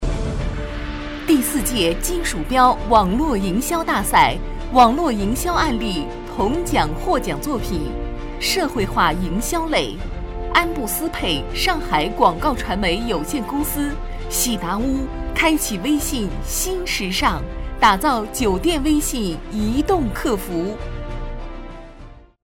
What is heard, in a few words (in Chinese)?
专题 颁奖 网络营销 大气